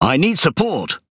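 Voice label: Speech Quick Commands Need Support, British English; World Of Warships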